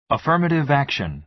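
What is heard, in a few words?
"affirmative action", American English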